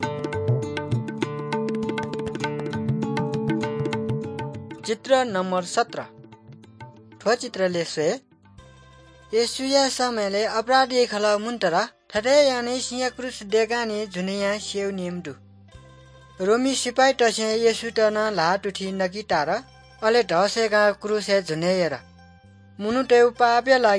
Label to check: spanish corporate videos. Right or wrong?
Wrong